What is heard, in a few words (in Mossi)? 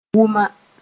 "wʋma", moore; deeply